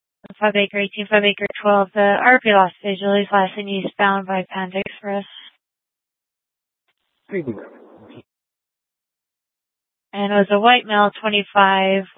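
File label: Police Department Dispatch, scanner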